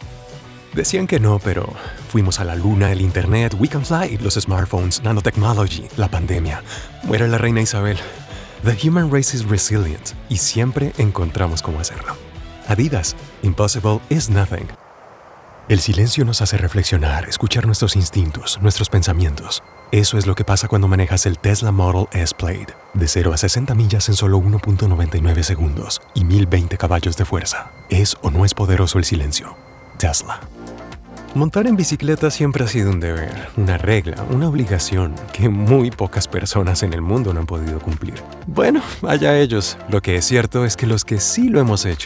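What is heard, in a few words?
Latin American Spanish, Commercial; GoLocalise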